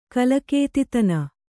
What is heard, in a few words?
"ಕಲಕೇತಿತನ", Kannada